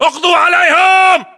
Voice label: inform kill firm infantry, battle chatter, english